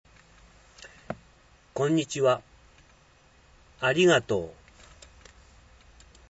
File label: japanese